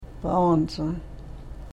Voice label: "paavansa", karuk

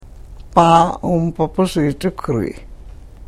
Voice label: karuk

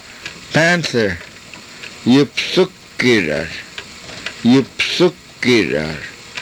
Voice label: "yupthukirar", karuk

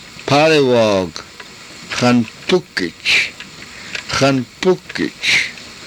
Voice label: "xanpukich", karuk

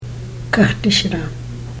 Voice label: "kahtishraam", karuk

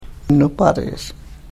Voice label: karuk

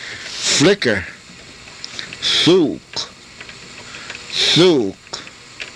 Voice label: "thuuk", karuk

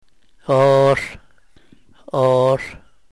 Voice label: oohl, yurok

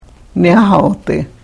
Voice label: karuk

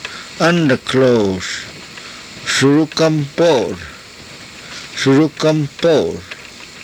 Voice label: "surukampoor", karuk

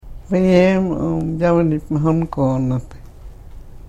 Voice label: karuk

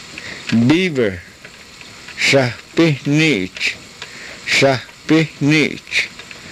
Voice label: "sahpihniich", karuk

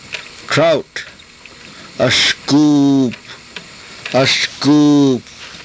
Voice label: "askuup", Karuk

